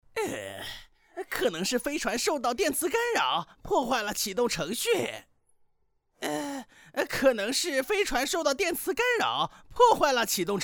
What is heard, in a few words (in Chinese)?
男 动漫 奸猾角色